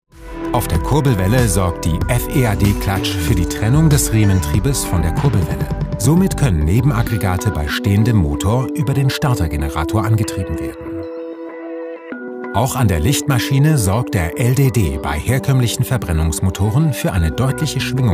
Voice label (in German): e-learning industrie deutsch